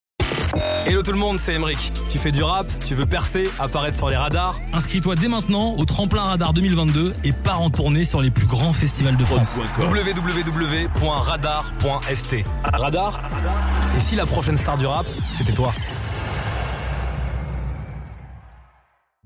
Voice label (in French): Spot, publicite; Skyrock; Radar